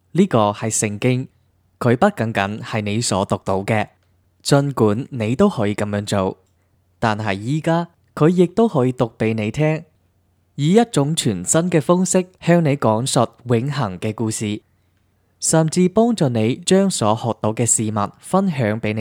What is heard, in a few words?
cantonese male bible; dictor; dictor-sounds